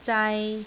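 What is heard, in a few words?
"zai3", Cantonese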